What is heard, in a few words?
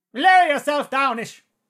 battle chatter